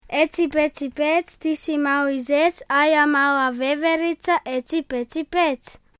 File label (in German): kroatisch